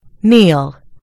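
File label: "kneel", US English; gcompris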